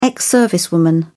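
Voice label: "ex servicewoman", British English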